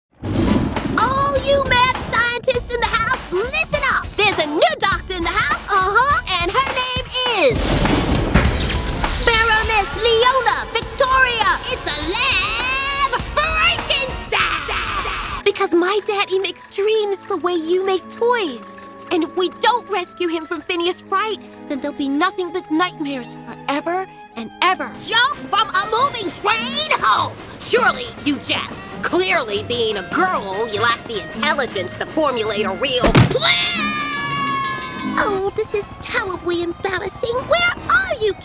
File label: Animation